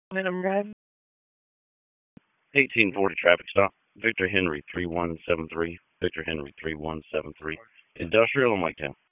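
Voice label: Police Northeast District Dispatch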